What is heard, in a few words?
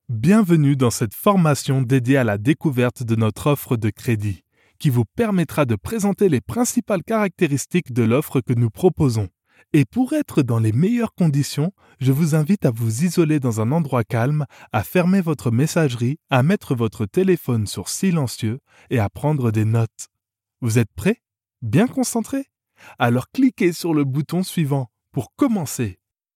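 e-learning bancaire, male voice actor; Audiobooks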